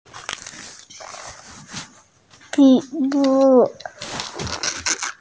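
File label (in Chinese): "li3wu4", Mandarin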